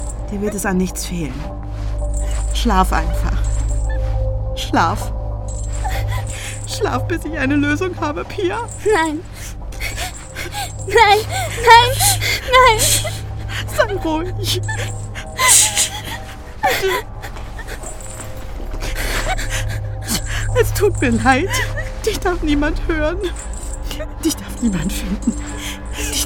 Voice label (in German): Hoerspielrolle Wahnsinnige Aerztin